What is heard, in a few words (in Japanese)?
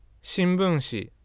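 "shinbunshi", Japanese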